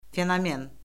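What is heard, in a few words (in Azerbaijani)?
"fenomen", Azerbaijani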